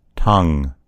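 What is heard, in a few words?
"tongue", American English; gcompris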